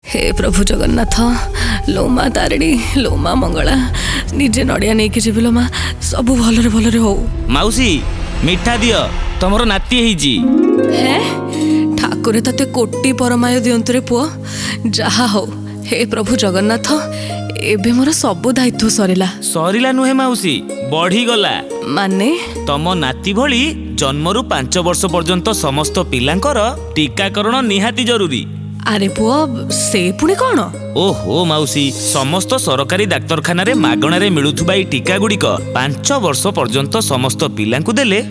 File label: psa radio drama; radio4child awards 2024